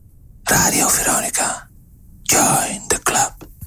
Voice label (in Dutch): de fluisteraar